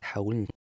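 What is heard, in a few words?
"h2ulh1t", Proto-Indo-European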